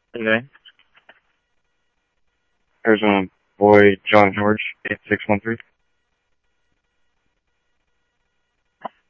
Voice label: Tempe Police, scanner audio